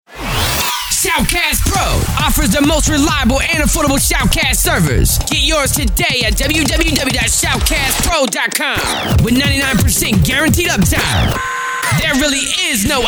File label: Commercial; Shoutcast Pro Quick